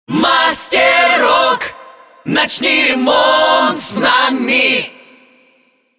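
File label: Russian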